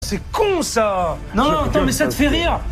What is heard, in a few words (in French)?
en colere; p etchebest; zapping tele